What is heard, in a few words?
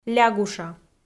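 "лягуша", Komi, female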